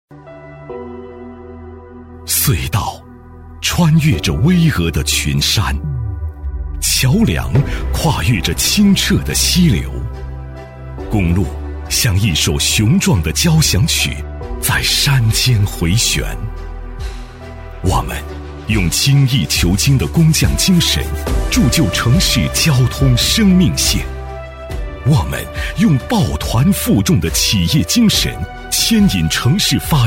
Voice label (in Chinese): 男 宣传片 质感 走心; 尚德; 男190-高端磁性 质感磁性